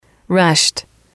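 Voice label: "rushed", English, female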